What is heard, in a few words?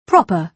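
"proper", British English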